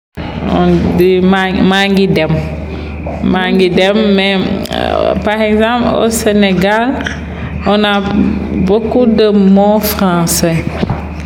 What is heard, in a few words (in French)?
aurevoir wolof explication